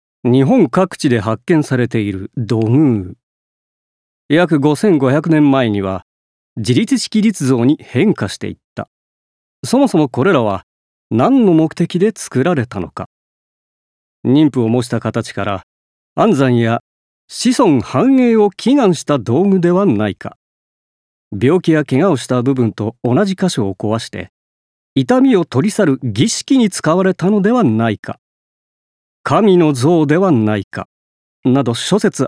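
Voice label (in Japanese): 解説ナレーション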